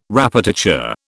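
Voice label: "répétiteur", British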